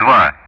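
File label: Speech Russian; DCS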